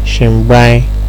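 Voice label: "shingbain", Nigerian Pidgin, male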